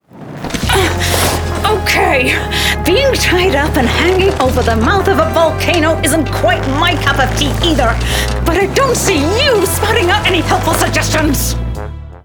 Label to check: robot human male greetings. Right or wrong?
Wrong